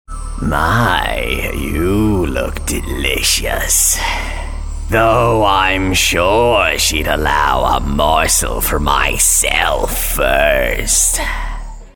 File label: Horror Monster